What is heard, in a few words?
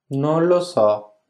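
"non lo so", Italian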